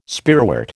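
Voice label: "spearwort", American English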